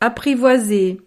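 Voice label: "apprivoise", French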